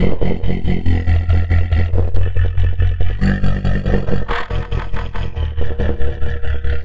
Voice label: beezlebug, Vocal Loops; Transmission-X